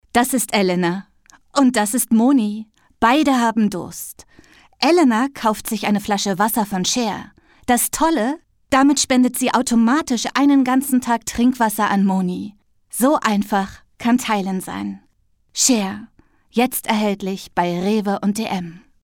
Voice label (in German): werbung wasser; share; casting stimmprobe